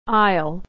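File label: "aisle", American English; Homophones; aisle isle I'll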